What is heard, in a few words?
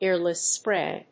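"airless spray", English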